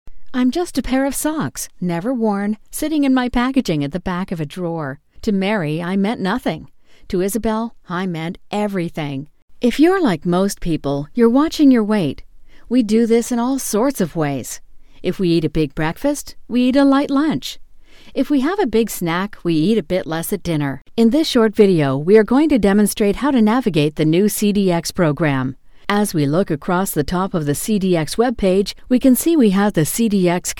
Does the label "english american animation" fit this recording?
Yes